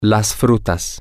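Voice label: the fruits, spanish, male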